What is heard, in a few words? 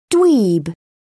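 "dweeb", British English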